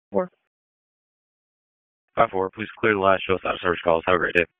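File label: EMS DISP, radio; wake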